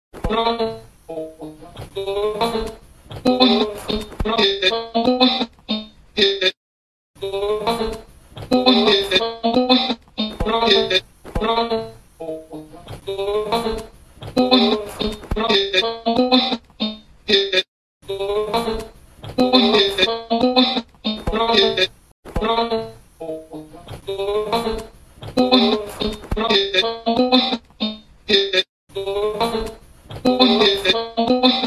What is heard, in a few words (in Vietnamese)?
Âm thanh micro bị xước mạng lag khi học zoom giọng nam